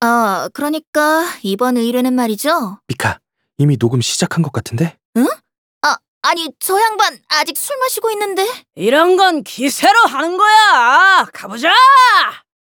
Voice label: Announcer equip